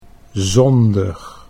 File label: "zondig", Dutch